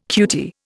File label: "cutie", Indian